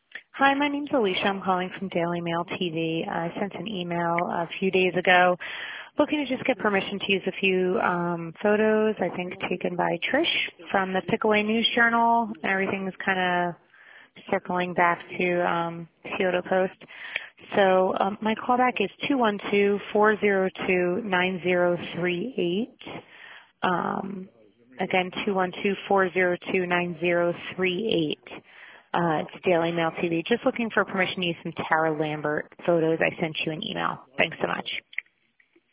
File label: voicemail